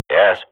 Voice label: radio bot reponse positive, Counter-Strike swat; Global Offensive; player vo